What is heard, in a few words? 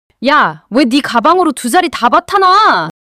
anger; monologue